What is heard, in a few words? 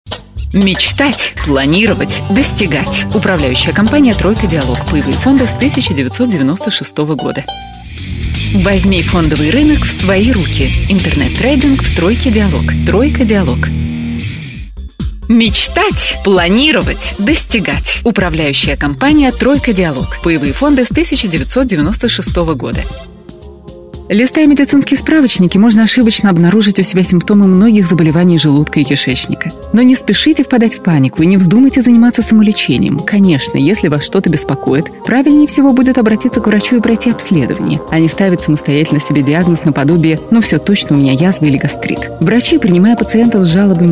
rus